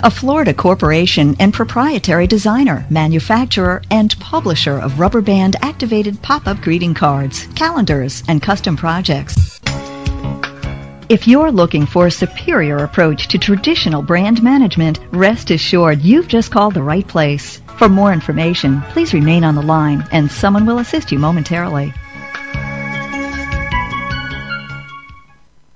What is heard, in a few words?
american advert on hold; english course; AudioEnglish